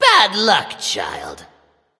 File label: Taunt Directed; Smite; Breezy Chibi Kukulkan; Scylla